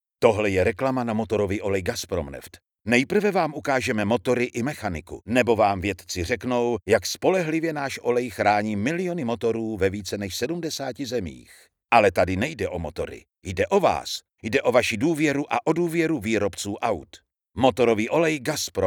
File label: czech promos